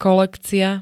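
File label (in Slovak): "kolekcia", Slovak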